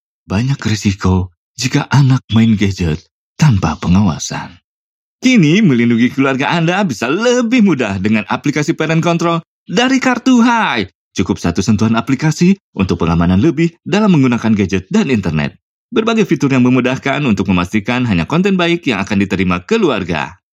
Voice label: CASUAL INDONESIA